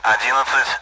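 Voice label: Speech Sound Russian Common AWACS Numbers; 11-begin; DCS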